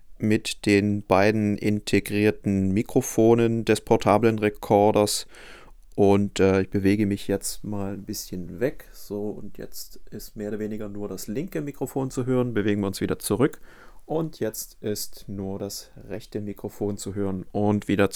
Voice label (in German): Tascam DR-05X Sprache